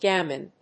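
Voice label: "gammon", English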